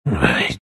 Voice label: anger; Lone Druid

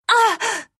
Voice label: pain, ru; Lina; Dota 2

